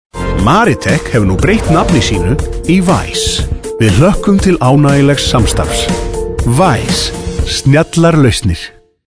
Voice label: Icelandic M Advert

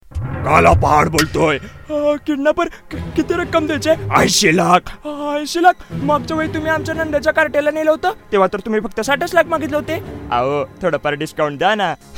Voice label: Marathi M Commercial

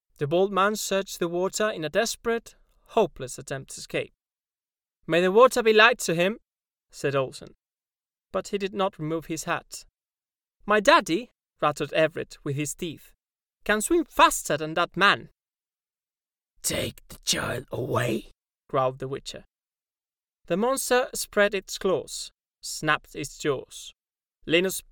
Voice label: Spanish English M Narration; accent of spain

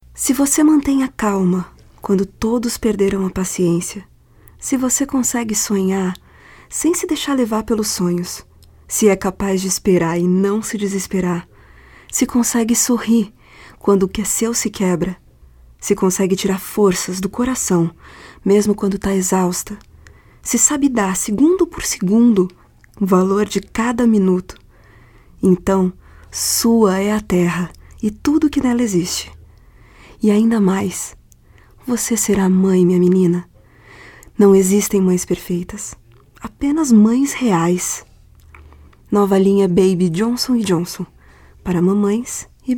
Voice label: Portuguese BR F Commercial; voice over

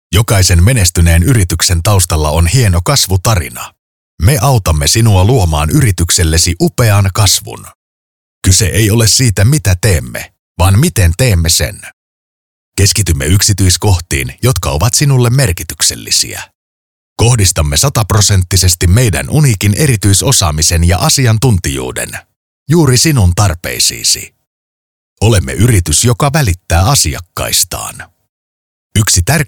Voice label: Finnish M Corporate; voice over

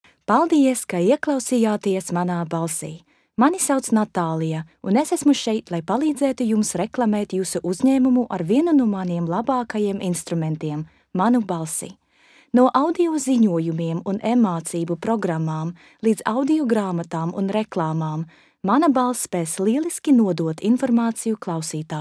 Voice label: Latvian F, latvian

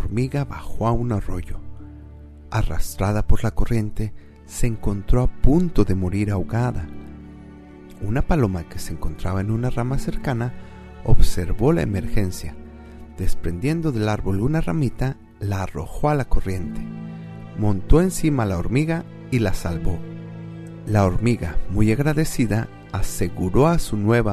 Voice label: Spanish Mexican M

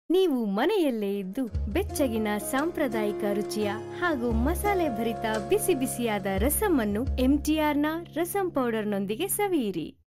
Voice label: Kannada F

